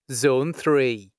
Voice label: Zone 3, announcements male

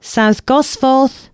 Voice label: announcements, female

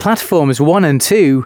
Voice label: announcements, male

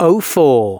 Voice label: announcements male

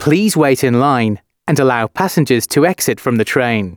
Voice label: announcements, male

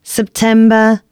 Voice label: female, announcements

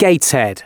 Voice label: announcements male

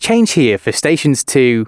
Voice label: announcements, male

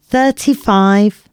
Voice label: announcements female

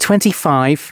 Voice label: announcements male

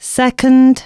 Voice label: announcements female

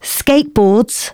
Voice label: female, announcements

